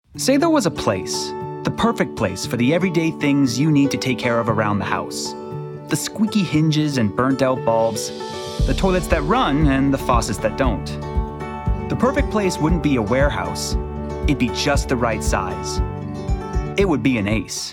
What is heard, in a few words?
commercial